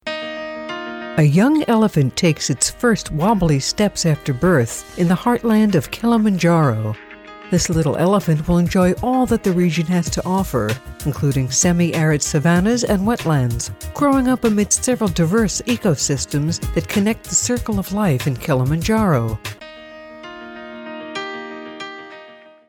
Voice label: TV DOC ELEPHANTS

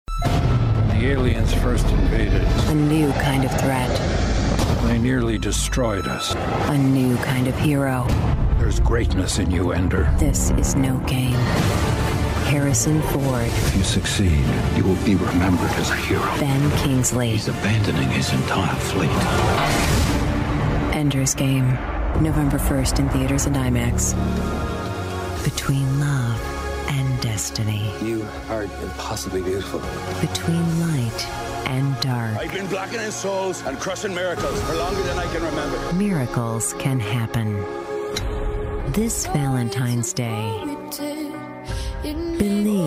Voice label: Movie Trailer

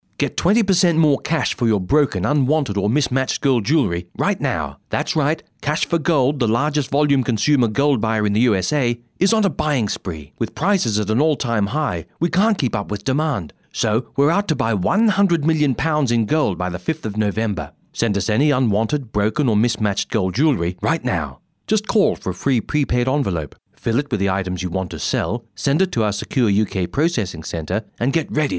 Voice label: British Advert

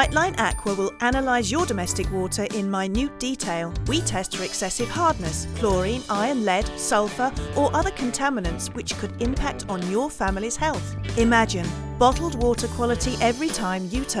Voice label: with music; Brightline Aqua

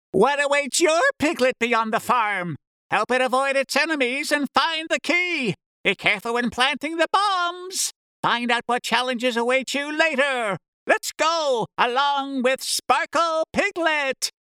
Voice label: Trailer; Sparkle Piglet

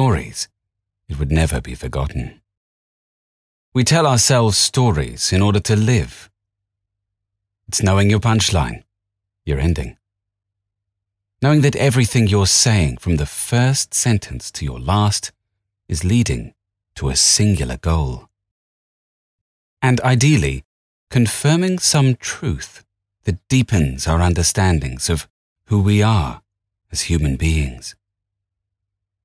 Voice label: UK storytelling

